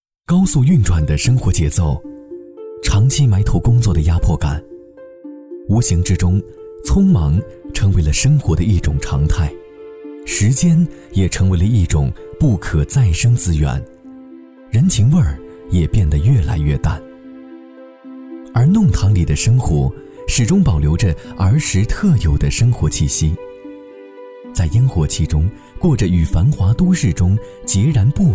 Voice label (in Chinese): 商业纪录片饱满讲述沉静